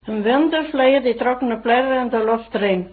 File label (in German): mittelhessisch